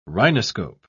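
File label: "rhinoscope", British English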